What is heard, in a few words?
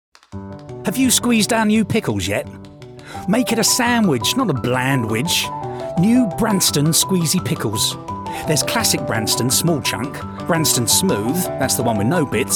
Commercial